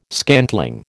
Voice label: "scantling", American